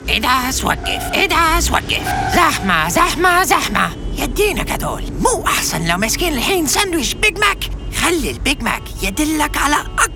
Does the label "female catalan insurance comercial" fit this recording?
No